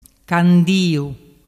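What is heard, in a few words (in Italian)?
"candiu", dialect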